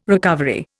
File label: "recovery", Indian